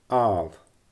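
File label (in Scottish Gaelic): "aal", Scottish Gaelic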